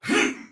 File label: Attack Soldier Male; Dialog; Barks; Battle; Sinecure